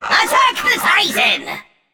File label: Attack M; smite; hades